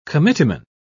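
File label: "committeeman", English, British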